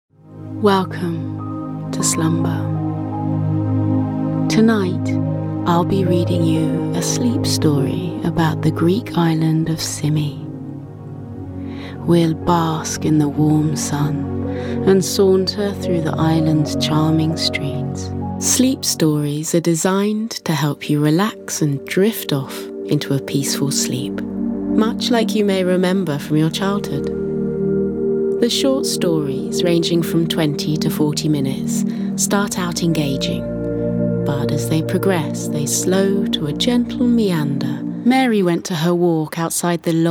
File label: voiceover meditation